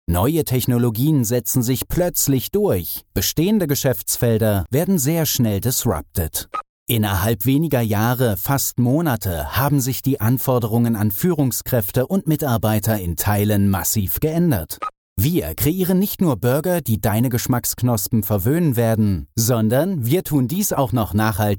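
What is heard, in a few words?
German, male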